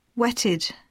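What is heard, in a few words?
"wetted", English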